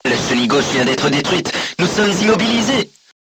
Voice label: voice message left track knocked down, crew tanks french